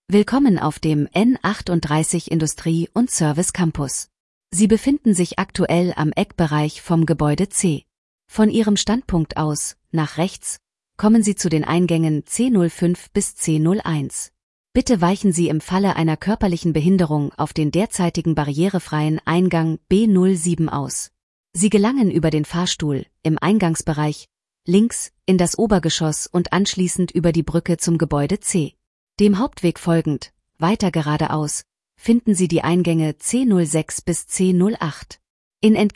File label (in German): wayfinding audioansage lang; ECKE C; gebaeude c wegweiser c